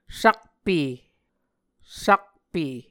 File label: "sakpe", Muscogee